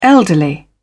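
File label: "elderly", British English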